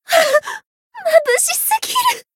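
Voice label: healed, Japanese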